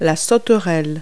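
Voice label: "grasshopper", English word; Multi-Lingual Talking Picture Dictionary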